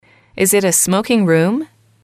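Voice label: "is it a smoking room", English, female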